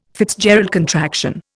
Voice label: "FitzGerald contraction", Indian

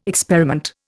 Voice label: "experiment", Indian

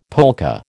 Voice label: "polka", American

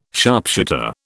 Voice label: "sharpshooter", British

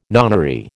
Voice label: "nonary", American

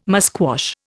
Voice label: "musquash", Indian

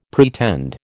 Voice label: "pretend", American

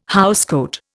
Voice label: "housecoat", Indian

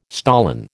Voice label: "stolon", American

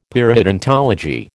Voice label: "periodontology", American

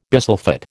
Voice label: "bisulphate", American

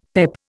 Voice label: "PEP", Indian; Dictionary

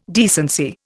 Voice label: "decency", Indian

